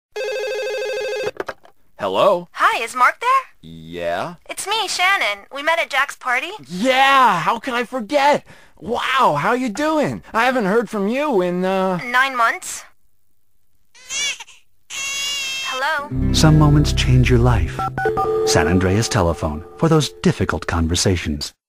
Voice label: Radio Commercials; Grand Theft Auto San Andreas